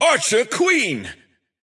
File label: Archer Queen intense